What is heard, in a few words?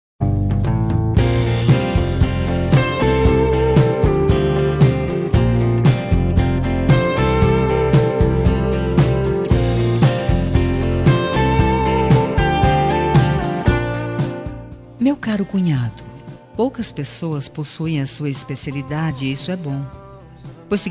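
female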